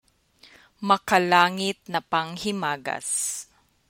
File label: tagalog